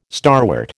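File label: "starwort", American English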